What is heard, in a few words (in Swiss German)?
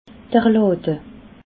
"lade", alsace